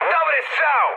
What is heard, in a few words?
bomb success mood high, speech pol; War Thunder